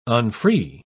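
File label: "unfree", English, uk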